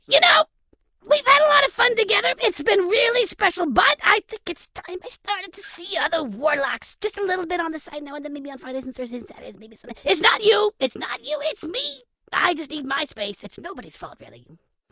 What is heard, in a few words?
IMP FUNNY; wow